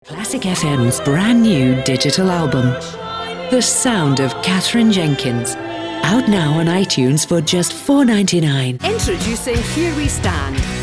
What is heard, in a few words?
Radio Commercials